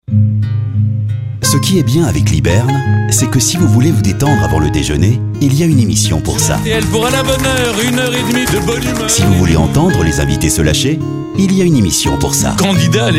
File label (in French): Voix francophone